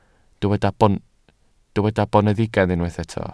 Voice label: "boneddigaidd", Welsh

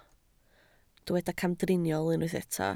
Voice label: "camdriniol", Welsh

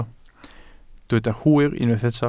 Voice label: "hwyr", Welsh

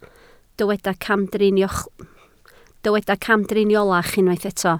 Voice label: "camdriniolach", Welsh

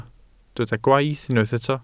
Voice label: "gwaith" Welsh

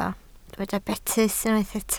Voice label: "betys", Welsh

